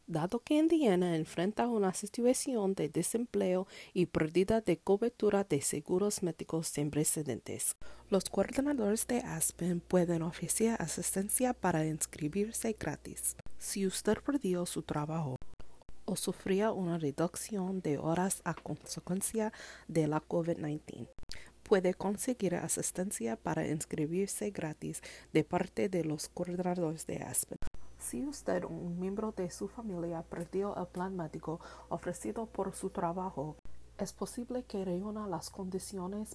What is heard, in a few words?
Spanish PSA Recording